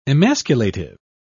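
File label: "emasculative", British English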